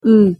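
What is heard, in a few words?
"ы", Russian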